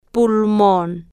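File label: "pulmon", Spanish, female, slow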